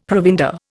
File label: "provender", Indian; Dictionary